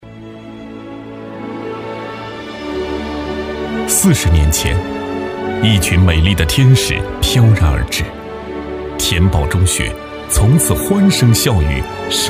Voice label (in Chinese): 专题 颁奖 浑厚, 男; 四十年回首